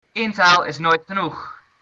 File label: one language, dutch